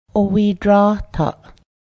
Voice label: butter, Cayuga